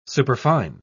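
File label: "superfine", English, uk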